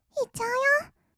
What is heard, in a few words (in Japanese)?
"いっちゃうよ", kawaii voice; ASMR; 色々なワンショット; 1200 kawaii voice!!